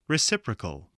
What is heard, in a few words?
"reciprocal", voice, english